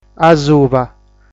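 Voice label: "Asuba", Sardinian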